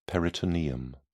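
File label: "peritoneum", British English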